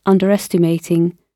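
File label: "underestimating", British English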